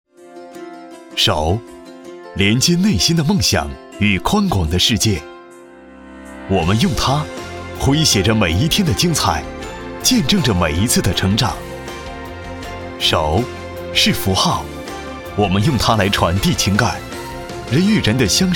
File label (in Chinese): 男 专题 旁白配音, 国语男声配音; 掌心片